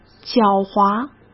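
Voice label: "狡猾", Mandarin Chinese; chinese flashcards; hsk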